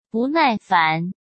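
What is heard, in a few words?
"不耐烦", Mandarin Chinese; chinese flashcards; hsk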